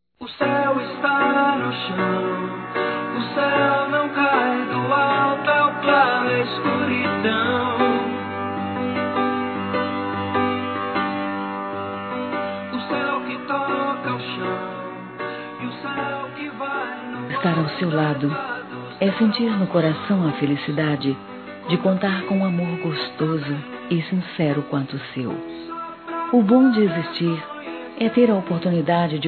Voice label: Ano Novo Romântico Feminino; Telemensagens; Natal e Ano novo

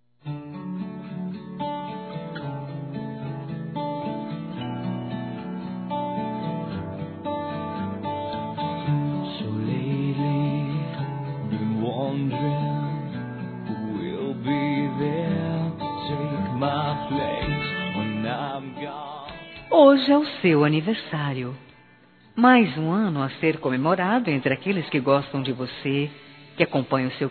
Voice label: SOGRO F, Telemensagens Aniversários; Aniversários diversos; fem

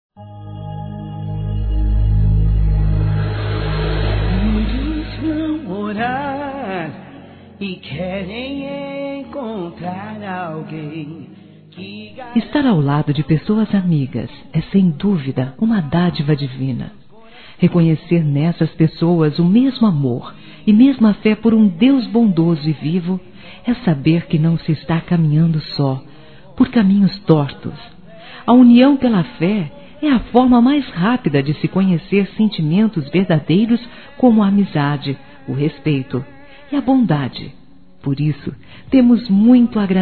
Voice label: Neutro Católico Feminino, Telemensagens Aniversários; ANIV. Evangelico

